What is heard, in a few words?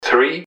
"three", english man, subway announcers; MetrostroiAddon